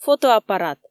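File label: "фотоаппарат" Komi, female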